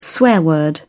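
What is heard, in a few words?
"swear word", British English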